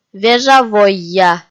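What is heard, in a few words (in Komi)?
вежа войя, Komi, female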